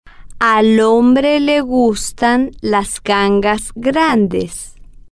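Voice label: al Hombre Le Gustan Las Gangas Grandes, slow, Spanish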